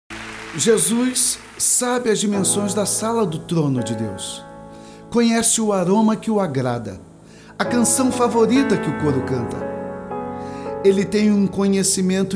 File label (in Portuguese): Narracao